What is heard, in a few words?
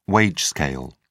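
"wage scale", British English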